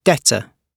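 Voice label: "debtor", British English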